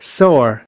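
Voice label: "soar", American English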